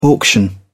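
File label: "auction", British English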